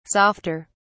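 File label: "softer", British English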